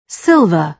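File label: "silver", British English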